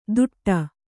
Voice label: "ದುಟ್ಟ", Kannada

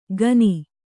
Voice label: "ಖನಿ", kannada

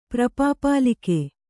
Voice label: "ಪ್ರಪಾಪಾಲಿಕೆ", Kannada

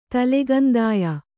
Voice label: "ತಲೆಗಂದಾಯ", kannada

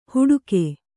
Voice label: "ಹುಡುಕೆ", Kannada

